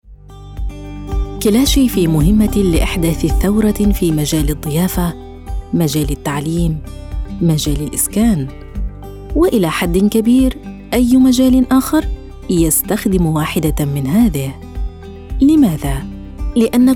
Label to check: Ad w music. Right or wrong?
Wrong